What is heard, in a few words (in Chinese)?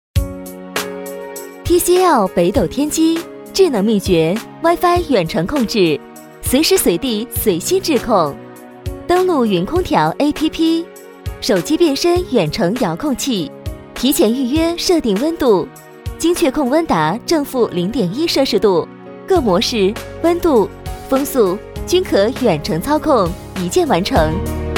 女 专题 空调 介绍, 国语女声配音; tcl空调